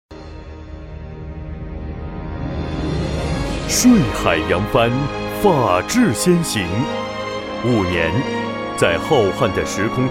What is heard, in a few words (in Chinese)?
男, 大气党建专题; 铜仁国税